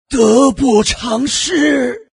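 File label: death, chinese; dota2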